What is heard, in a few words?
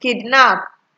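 "kidnap", Nigerian Pidgin, female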